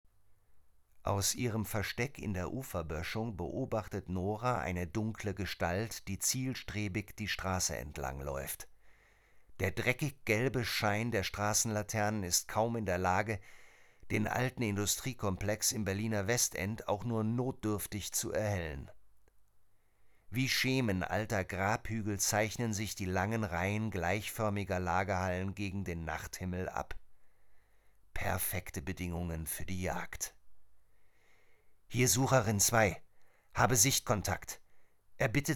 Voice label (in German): Krimi, Audiobook (Hörbuch), German